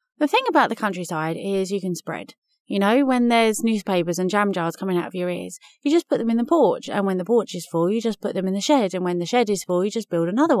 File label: Drama